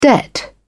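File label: "debt", British English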